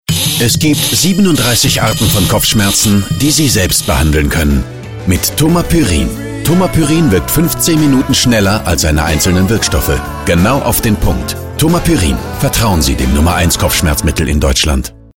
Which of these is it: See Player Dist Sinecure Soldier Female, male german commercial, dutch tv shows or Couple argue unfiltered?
male german commercial